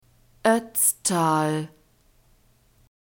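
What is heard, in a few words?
"Ötztal", German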